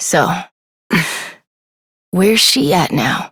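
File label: Adult, English; New War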